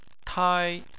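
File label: "tai", Chinese, first tone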